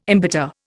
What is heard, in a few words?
"embitter", Indian